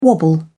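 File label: "wobble", English, British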